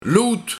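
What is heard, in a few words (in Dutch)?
"Loet", Luik, Slechte luim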